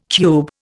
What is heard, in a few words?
"cube", Indian